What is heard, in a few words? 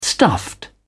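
"stuffed", English, uk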